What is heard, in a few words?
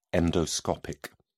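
"endoscopic", British English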